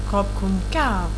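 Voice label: "merci" French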